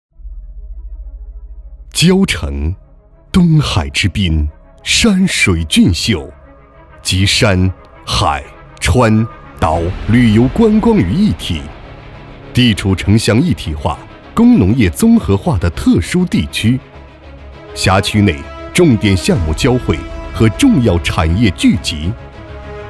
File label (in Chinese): 国语男, 公安; 蕉城公安